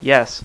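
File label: "yes" male; voice; Web Clip Empire 150,000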